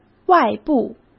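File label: "外部", Mandarin Chinese; hsk; chinese-flashcards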